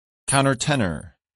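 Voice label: "countertenor", English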